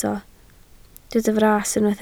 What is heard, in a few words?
"fras", Welsh